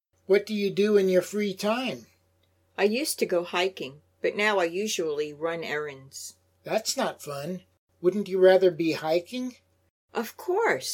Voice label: Natural Dialog; Lesson 1